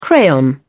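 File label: "crayon", English, British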